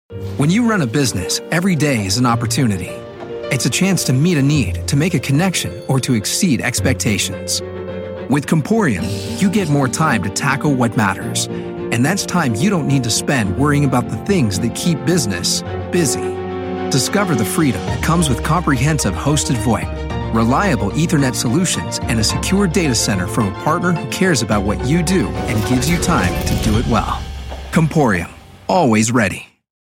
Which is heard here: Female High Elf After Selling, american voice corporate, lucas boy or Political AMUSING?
american voice corporate